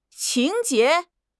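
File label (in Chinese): "情节", Chinese; HSK; HSK6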